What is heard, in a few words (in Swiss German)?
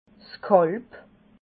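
"kalb", Alsatian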